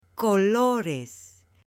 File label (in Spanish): "colores", M; JUGUETES; AppLenguaje